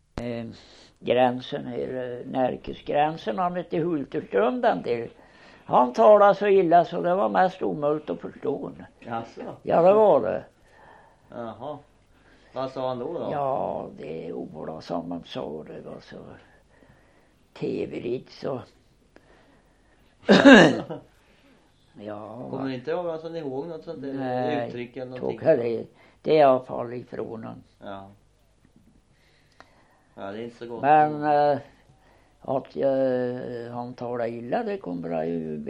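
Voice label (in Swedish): Godegård Östergötland, Svenska sagor, dialekter; Folkminnen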